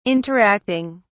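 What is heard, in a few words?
"interacting", British English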